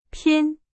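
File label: Chinese, vocabulary; hsk2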